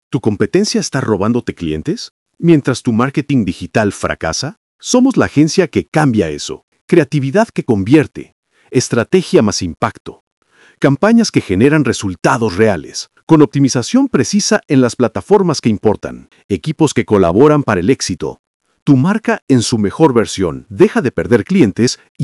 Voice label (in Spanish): Voz veloz para Montaje